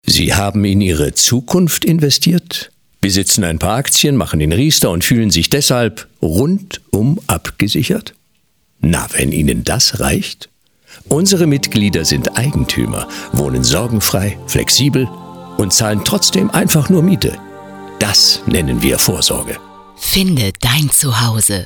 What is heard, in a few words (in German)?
Radiospot; Baden FM; WBG Freiburg